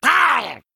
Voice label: Male Murloc Attack

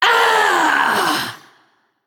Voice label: Female Naga Death

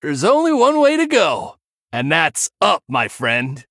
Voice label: Male Human Bartender Picked

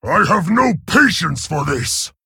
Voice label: Male Orc Threaten; Garrosh

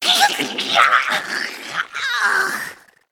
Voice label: Female Spider Druid Death

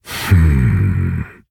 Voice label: Orc Emote Thinking; Nerzhul

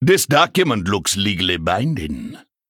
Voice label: Male Troll Play

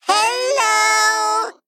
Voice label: Male Mech Oops

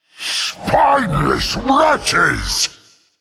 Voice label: Male Qiraji Attack

